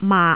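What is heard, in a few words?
"maak6", Cantonese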